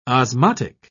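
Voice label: "osmotic", British English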